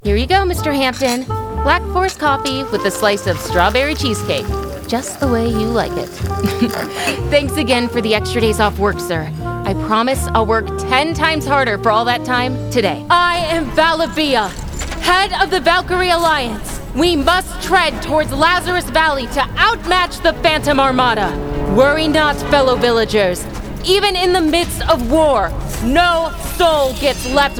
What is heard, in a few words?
Character Demo